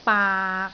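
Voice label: "baak6", Cantonese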